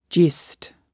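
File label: "gist", English word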